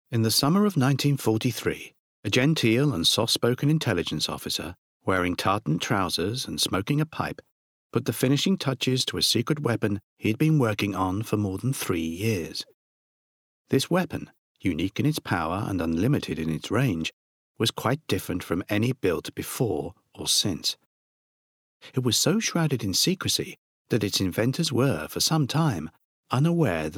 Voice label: Bookread